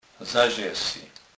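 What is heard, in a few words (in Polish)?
"zazesy", Kurpie dialect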